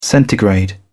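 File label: "centigrade", British English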